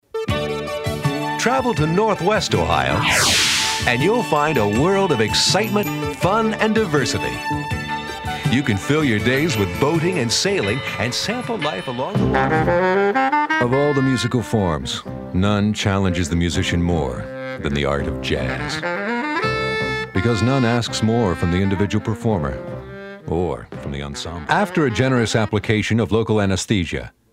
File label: Narr